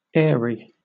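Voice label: "airy" British English; pronunciations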